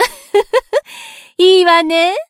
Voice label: growth, jp; sherinu

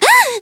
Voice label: Voice damaged; ewella

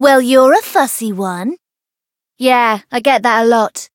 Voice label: Voice story

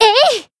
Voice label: Voice attack, jp; flammelapis

